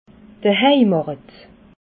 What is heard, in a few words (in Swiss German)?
"heimachet", alsace